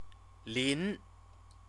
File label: "lin", Chinese